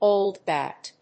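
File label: "old bat", English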